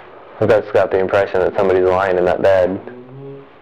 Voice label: uh huh, evp; Belvidere Mansion